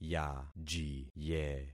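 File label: "yajiye", concatenated syllables; Affixing experiment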